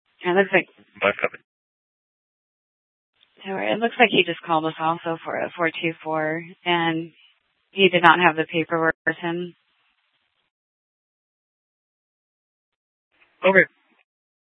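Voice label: Mesa Police Department Superstition Dispatch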